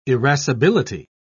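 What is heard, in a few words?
"irascibility", English, British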